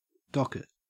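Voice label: "docket", Australian English; pronunciations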